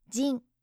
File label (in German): "jin", japanische maedchennamen